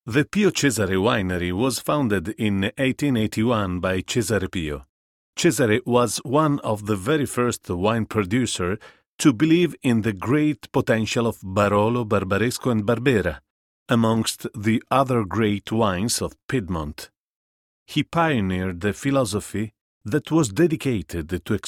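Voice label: Italian English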